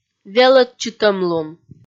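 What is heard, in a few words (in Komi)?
"велӧдчытӧмлун", Komi, female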